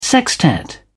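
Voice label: "sextet", British English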